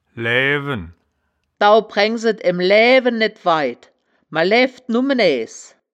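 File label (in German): "laeaewen", wittlicher woerterbuch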